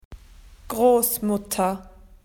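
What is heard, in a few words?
"grandmother", Australian English; PictureDictionary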